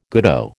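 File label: "goodo", American English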